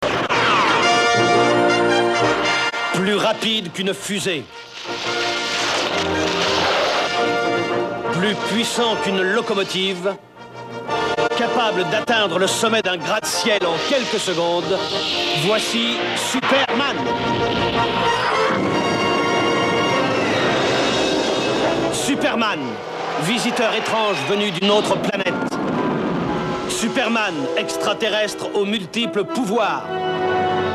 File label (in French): Narrateur